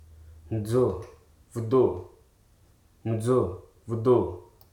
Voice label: "individual", Hruso

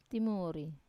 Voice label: "timuri" singkong, Wamesa

